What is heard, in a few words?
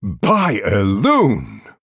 Male Night Elf Wow